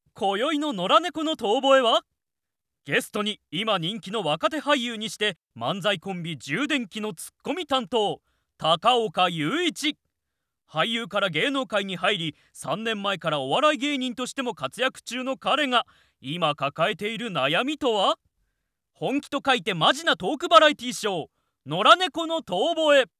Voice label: male